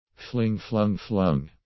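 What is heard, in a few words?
"fling flung flung", English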